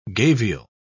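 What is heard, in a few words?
"gavial", British English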